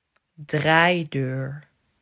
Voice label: "Draaideur", Dutch